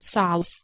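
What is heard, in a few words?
"seh2ls", Proto-Indo-European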